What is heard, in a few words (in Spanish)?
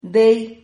"dei", Silabas